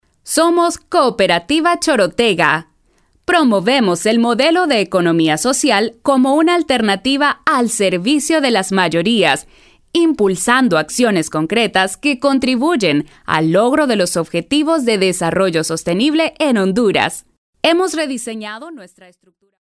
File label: locutora honduras hondurian voiceover; locutora hondureña